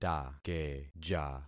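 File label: "takeja", concatenated syllables; Affixing experiment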